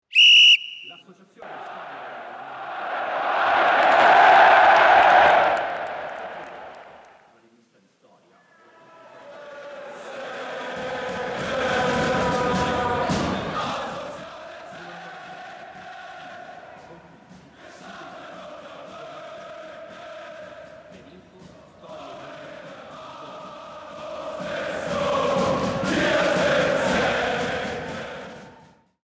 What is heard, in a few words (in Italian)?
spot; zapruder